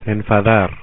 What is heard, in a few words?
"enfadar", Spanish; infinitive; verbs